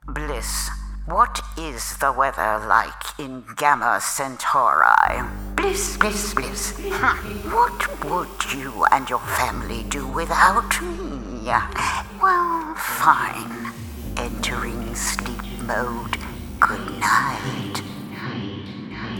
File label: Animation